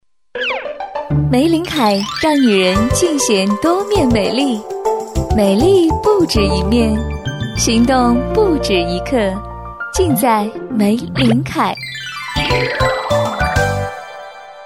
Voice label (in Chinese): girl, guanggao; Chinese; meilinkai